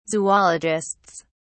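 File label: "zoologists", British English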